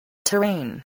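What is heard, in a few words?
"terrain", English word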